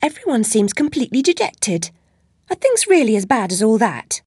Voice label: cheer, voice commands; svencoop; Alice

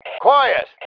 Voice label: quiet, male

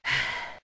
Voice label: cheer; svencoop; voice commands; Link

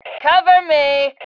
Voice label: COVERME, FEM

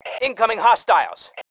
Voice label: incoming enemy, male

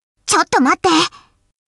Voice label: wait for me, voice commands, Anime Loli; svencoop

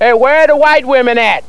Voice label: women; dod; texas

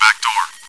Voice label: chitchat, bot, voice commands; svencoop

